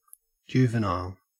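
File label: "juvenile", Australian English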